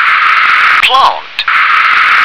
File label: "plant", English